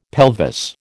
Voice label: "pelvis", American